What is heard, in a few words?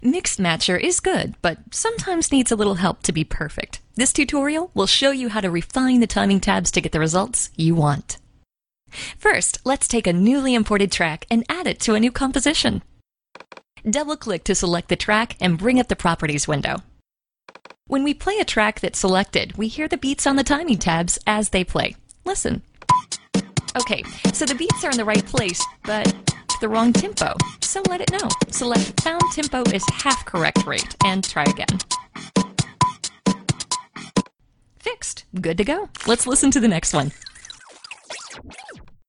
VO F